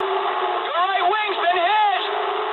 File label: eng right wing mood low, speech eng; War Thunder